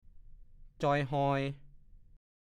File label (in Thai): "จ่อยหล่อย", ภาษาโคราช; หน้าจืด ซีดเซียว